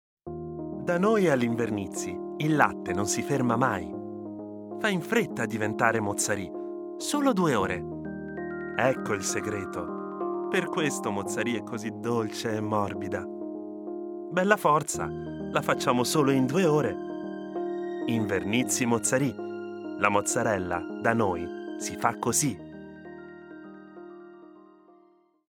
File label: soft Italian